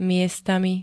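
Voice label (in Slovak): "miestami", Slovak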